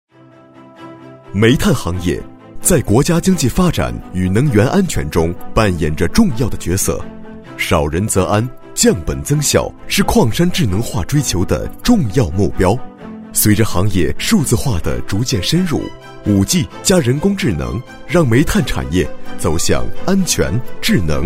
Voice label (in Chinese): 男 宣传片旁白 大气稳重